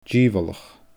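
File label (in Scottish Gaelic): "dìobhalach", Scottish Gaelic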